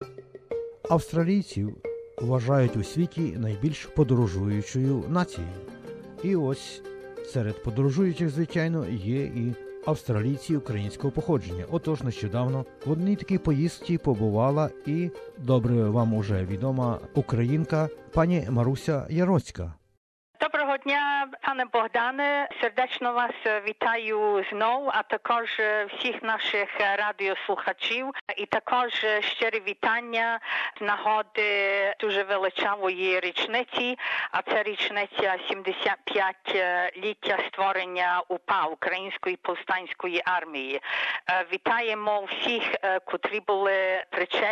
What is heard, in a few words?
ukrainian; ABOUT UKRAINIAN AMERICA; SBS Ukrainian